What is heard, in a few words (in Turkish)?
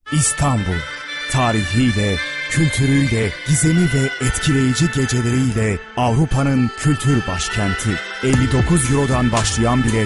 kampanya, seslendirme